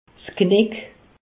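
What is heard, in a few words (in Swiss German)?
"knick", Alsace, Haut Rhin; medecine; nuque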